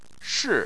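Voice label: "shi" tone 4, Chinese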